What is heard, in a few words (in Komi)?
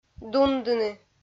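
"дундыны", Komi, female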